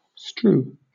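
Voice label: "strew", British English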